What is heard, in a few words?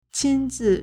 "qin1 zi4", Chinese